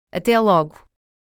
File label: Portuguese Good bye; Greetings